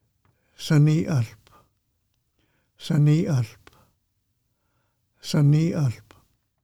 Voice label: Dwarf Oregon Grape Musqueam name pronunciation